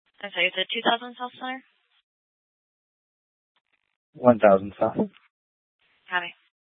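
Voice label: police dispatch, scanner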